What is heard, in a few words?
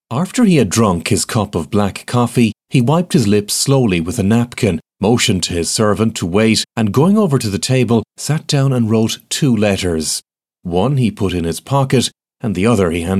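audio books, English; England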